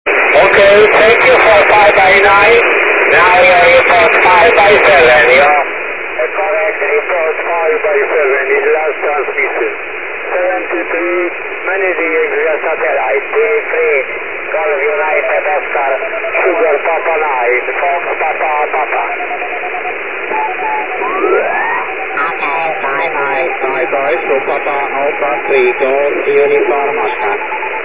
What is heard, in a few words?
qso